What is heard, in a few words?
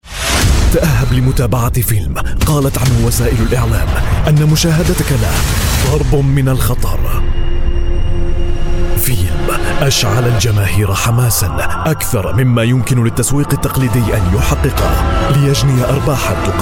arabic voice over male commercial